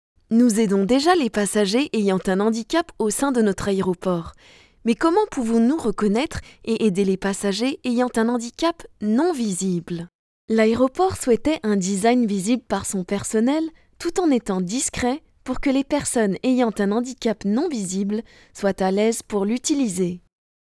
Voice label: FR Reassuring Explainer, french